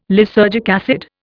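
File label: "lysergic acid", Indian English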